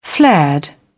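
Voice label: "flared", British English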